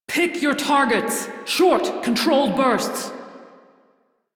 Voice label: crew enemy spot, female; Dialog; Warframe; Railjack Crew; Steel Meridian

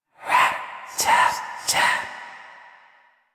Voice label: Intelligible Whisper Rap Tap Tap A; Rell; Warframe; Harrow

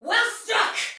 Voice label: See Ally Hit Soldier Female, Battle; Dialog; Sinecure

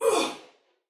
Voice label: Block Female; Dialog; Barks; Battle; Sinecure

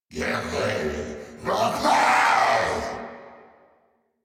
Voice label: Reload, Grineer, en; Warframe; Grineer Chem Nox

